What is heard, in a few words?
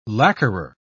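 "lacquerer", British English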